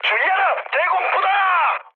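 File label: Korean damaged aa mood high, speech; War Thunder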